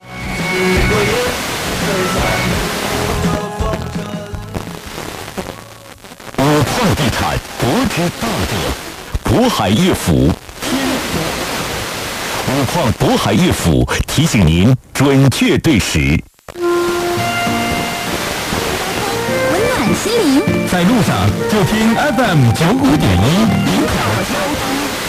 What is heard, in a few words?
95.1MHz Traffic and Literary Radio; Yingkou RGD